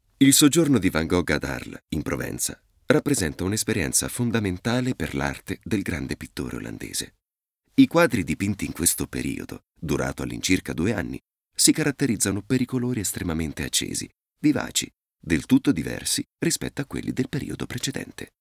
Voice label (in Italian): Documentario, Italian, male; documentary